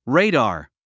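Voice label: "radar", English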